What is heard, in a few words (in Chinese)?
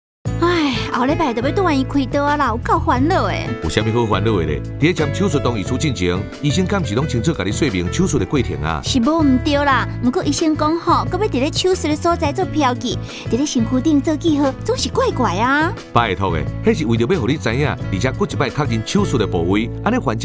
手術標示-台語版